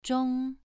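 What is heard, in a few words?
"zhong2", Chinese pronunciation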